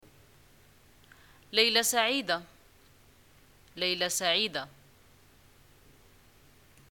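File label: greeting good night, Moroccan Arabic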